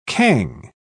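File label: "cang", British English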